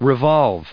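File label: "revolve", American English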